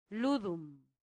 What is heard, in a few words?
"ludum", latin; santillana